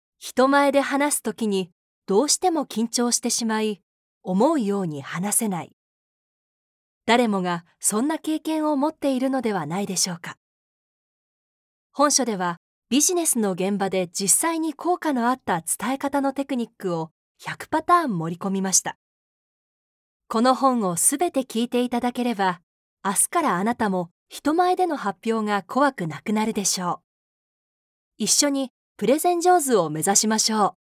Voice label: japanese audiobooks